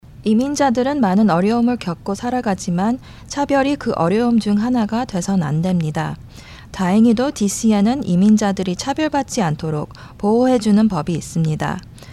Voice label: Korean